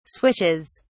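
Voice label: "swishes", British English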